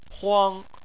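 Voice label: "huang", Chinese, first tone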